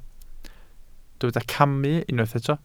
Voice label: "camu", welsh